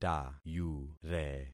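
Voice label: "tayure", concatenated syllables; Affixing experiment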